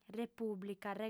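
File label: "repùblica", female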